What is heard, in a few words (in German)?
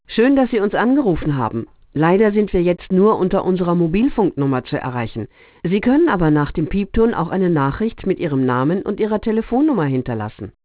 ansage (w)